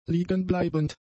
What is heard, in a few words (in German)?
"liegenbleibend", German; adjectives